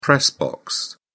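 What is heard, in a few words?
"press box", British English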